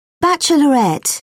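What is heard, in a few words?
"bachelorette", British English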